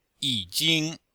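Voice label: "yi3 jing1", Mandarin Chinese; Cours de Chinois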